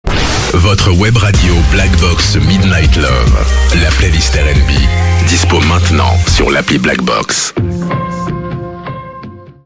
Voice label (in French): Habillage TV Radio Midnight Love FR